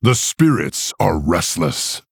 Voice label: Male Orc Greetings; Hero